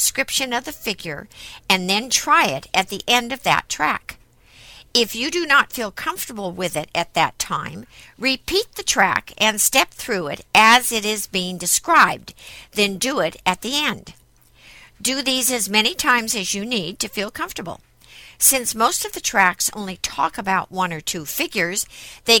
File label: Instructional, square dance